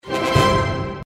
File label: announcement; The Nut Cracker